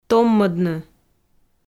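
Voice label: "томмӧдны", Komi, female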